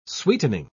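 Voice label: "sweetening", British English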